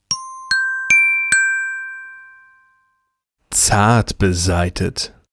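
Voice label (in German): "zartbesaitet", German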